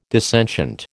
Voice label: "dissentient", American